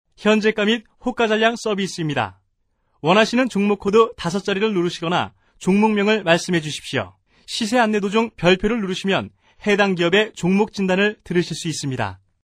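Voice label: Korean male; ARS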